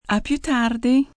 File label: "A piu tarde", Goodbyes, female, foreign, Italian; VoiceBox